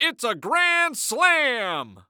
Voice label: Sports Human Voice Male Baseball Announcer; It's A Grand Slam

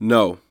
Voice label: Human Male No Short

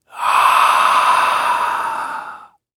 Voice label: Horror Scary Human Whisper Ghost

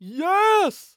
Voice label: Human Male Yes Old Man Yes

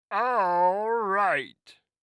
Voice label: Cartoon Voice "Aaaaalright" Goofy; AdobeCC

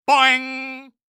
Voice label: Cartoon Loud Voice "Boing"; AdobeCC

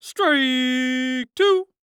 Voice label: Sports Human Voice Male Young Man Baseball Umpire; Strike Two

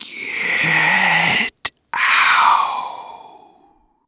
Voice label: Horror Scary Ghost Speak Get Out

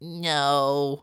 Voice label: "No", Human Male Nerdy

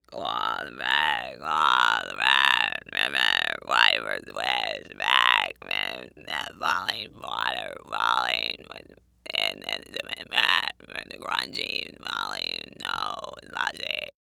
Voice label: Horror Scary Human Female Mumble Evil Mumble

